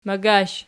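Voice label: "magash" nominative, Archi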